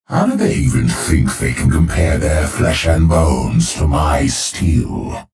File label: menu chatter; clutch